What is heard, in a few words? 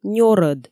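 "нёрӧд", Komi, female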